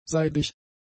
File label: "seidig", German; adjectives